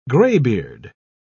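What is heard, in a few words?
"graybeard", British English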